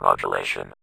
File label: Vocoder Words; Vengeance Samples